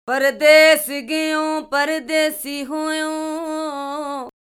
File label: DI Dry, pitch correction for vocal effects